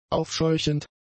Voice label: "aufscheuchend", German; adjectives

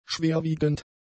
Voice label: "schwerwiegend", German; adjectives

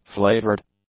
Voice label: "flavored", english; adjectives

